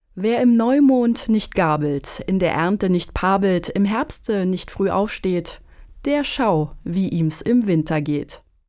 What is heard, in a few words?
german folk saying autumn winter moral